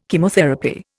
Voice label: "Chemotherapy", Indian